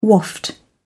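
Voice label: "waft", British English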